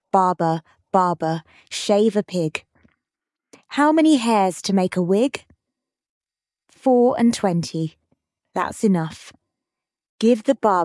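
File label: female British; Barber Barber Shave a Pig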